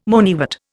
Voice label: "moneywort", Indian

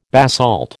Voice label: "basalt", American

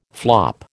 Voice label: "flop", American

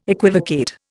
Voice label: "equivocate", Indian

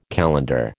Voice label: "calendar", American

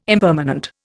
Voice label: "impermanent", Indian

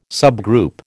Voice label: "subgroup", American

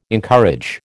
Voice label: "encourage", American

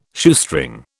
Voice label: "Shoestring", British

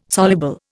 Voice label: "soluble", Indian

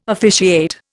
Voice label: "officiate", Indian

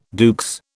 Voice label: "à deux", British

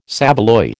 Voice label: "saveloy", American

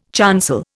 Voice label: "chancel", Indian

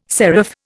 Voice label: "seraph", Indian

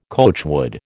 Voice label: "coachwood", American

